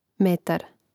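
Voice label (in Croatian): "metar", Croatian; neizvorni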